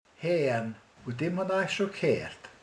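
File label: "hall", Hungarian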